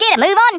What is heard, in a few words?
"COME ON THEN", Australian; Worms Armageddon